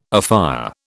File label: "afire", British